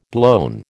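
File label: "blown", American English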